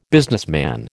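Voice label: "businessman", American English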